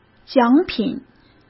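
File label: "奖品", Mandarin Chinese; chinese flashcards; hsk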